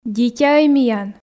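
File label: "дитяӧй миян", Komi, female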